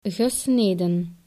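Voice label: "gesneden", Dutch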